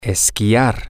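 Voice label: Spanish, to ski, male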